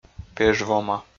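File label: "пеж вома", Komi, male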